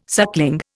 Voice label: "suckling", Indian

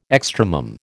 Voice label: "extremum", American

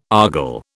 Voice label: "argol", British

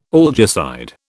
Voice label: "algicide", British

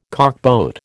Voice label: "cockboat", American

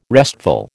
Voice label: "restful", American

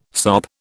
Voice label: "sop", British

